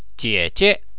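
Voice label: "jiejie", Chinese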